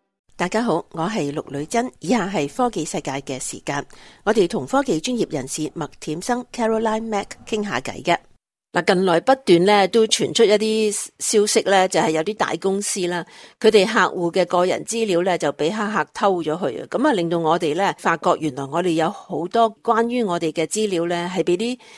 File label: cantonese; 【冰山一角】 認清自己向科技公司出賣的個人資料; 「科技世界 」; SBS Chinese